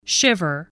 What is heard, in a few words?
"shiver", spelling